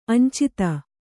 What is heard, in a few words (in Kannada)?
"ಅಂಚಿತ", Kannada